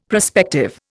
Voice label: "prospective", Indian; Dictionary

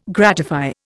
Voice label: "gratify", Indian

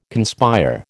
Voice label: "conspire", American

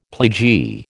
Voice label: "pledgee", American

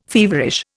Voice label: "feverish", Indian

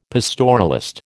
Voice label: "pastoralist", American

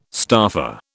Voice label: "staffer", British

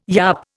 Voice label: "yup", Indian

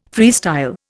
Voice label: "freestyle", Indian

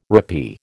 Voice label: "rappee", American

